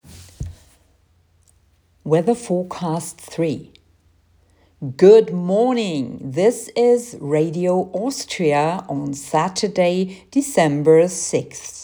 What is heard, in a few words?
weather forecast